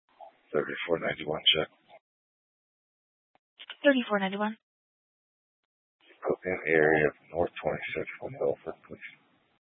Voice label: Police 1 Dispatch